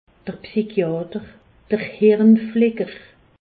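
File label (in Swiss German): "psychiater", Alsace, Haut Rhin; medecine; psychiatre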